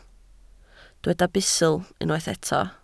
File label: "bustl", Welsh